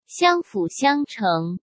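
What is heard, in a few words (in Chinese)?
"相辅相成", Mandarin Chinese; hsk; chinese-flashcards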